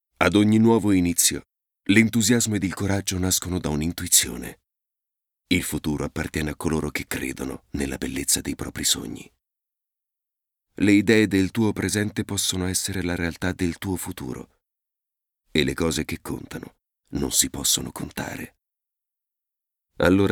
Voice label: Spot, ITALIAN MALE; commercial